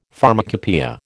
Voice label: "pharmacopoeia", American

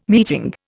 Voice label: "meeting", Indian

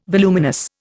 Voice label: "voluminous", Indian

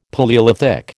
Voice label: "Palaeolithic", American

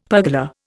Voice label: "pergola", Indian; Dictionary

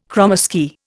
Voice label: "kromesky", Indian

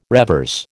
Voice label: "revers", American

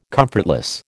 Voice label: "comfortless", American

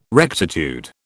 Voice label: "rectitude", British

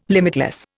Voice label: "limitless", Indian

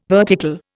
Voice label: "vertical", Indian

